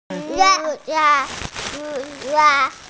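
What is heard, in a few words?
"xu3 yuan4", Mandarin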